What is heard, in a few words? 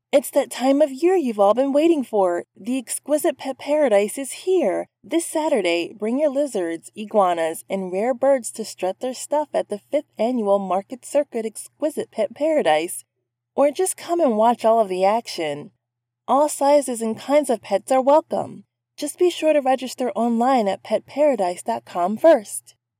radio; Voice over; PET PARADISE